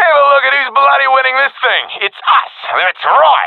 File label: round winning, professional epic; vo; Counter Strike Model Pack; CS:GO